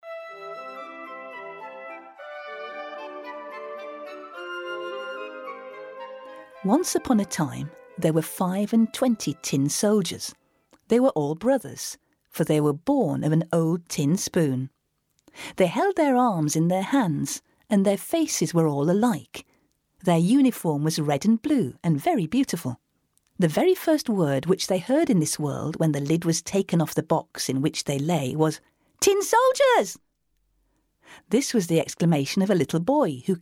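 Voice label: e-learning, British English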